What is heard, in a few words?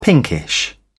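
"pinkish", British English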